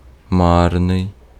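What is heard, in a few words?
Ukrainian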